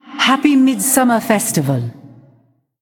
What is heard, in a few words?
Female Ghost; en